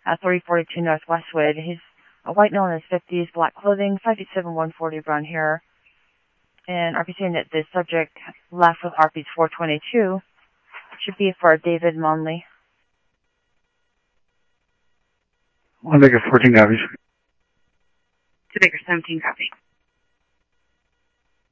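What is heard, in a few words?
Simulcast police department, scanner audio trunk; Mesa Simulcast; MESA PD 2 Centrl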